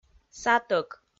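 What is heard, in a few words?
"сатӧк", Komi, female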